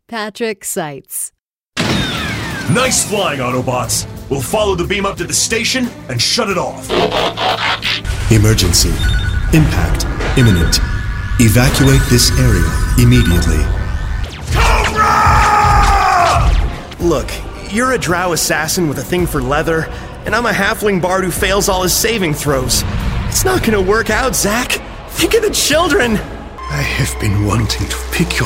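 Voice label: animation men interactive, voices men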